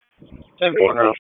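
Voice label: Police Dispatch, p25; wake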